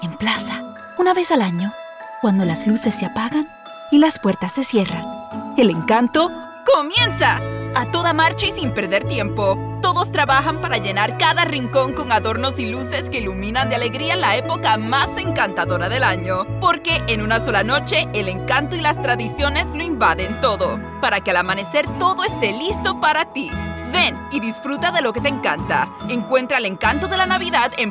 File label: spanish puerto rican narration; professional bilingual (English and Spanish) voice actor